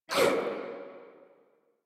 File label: Pain Sniper, en